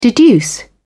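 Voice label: "deduce", British English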